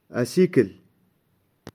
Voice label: "Asekal", Touareg; Viaggio

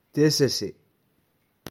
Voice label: "Tesase", Touareg; Octobre

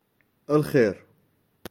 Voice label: "Alkher", Touareg; Bien

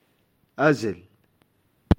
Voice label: "Azal", Touareg; Mattina

